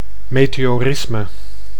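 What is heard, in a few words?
"meteorisme", Dutch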